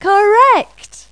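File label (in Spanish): "correcto", Spanish; Pipo-Aprende musica con Pipo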